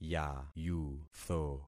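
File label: "yayutho", concatenated syllables; Affixing experiment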